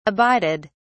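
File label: "abided", British English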